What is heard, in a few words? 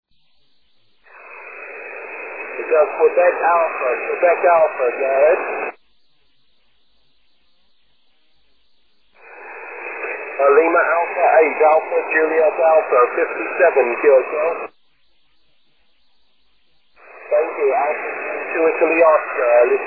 6m ssb